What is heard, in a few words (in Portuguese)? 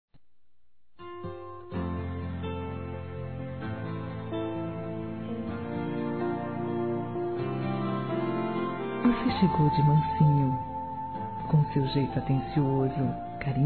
ROMANTICA F